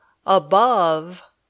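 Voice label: "above", English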